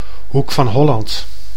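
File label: "Hoek van Holland", Dutch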